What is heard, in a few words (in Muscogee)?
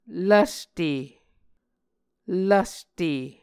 "lvste", Muscogee